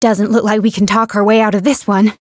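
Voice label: encounter fear; renri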